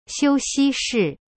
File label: "休息室" xiu xi shi, chinese